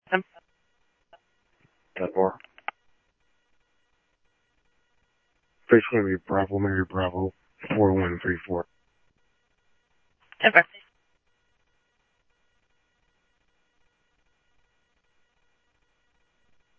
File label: police, scanner audio